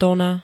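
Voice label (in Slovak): "tôňa", Slovak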